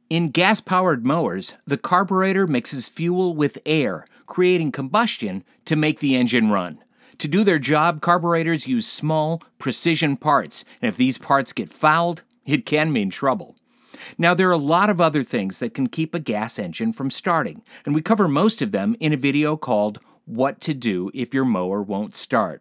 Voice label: Industrial Narration E-learning